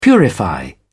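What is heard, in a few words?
"purify", British English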